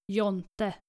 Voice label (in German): "jonte", skandinavische jungennamen